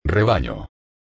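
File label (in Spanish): "rebaño", español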